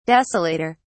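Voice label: "desolator", British English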